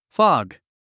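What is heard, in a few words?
"fog", English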